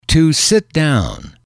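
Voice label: "to Sit Down", male, english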